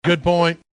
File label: robot good